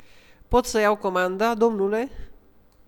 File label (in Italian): ristorante, rumeno; frasi utili